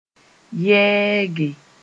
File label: "yɛɛge", moore